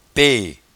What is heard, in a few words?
"pee", patois jura